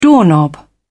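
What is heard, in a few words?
"doorknob", British English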